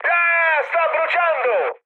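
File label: Italian on fire mood med, speech; War Thunder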